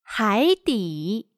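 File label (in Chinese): "海底", Chinese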